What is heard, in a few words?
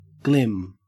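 "glim", Australian English; pronunciations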